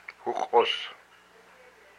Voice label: "my neck", hupa

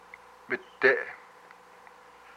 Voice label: "its horn", hupa

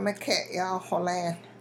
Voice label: animals tails, hupa

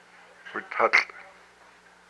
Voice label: "my flank", hupa

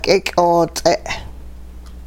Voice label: something s sinew, hupa

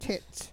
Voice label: cane, hupa

